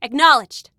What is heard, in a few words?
Command Acknowledged, Female